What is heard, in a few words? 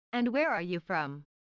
line Sophia fast